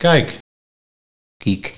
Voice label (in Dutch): "Kiék", Nieuw lekkerlands dialect